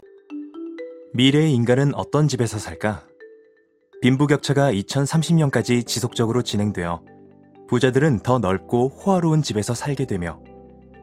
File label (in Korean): 멘트 기본톤 내레이션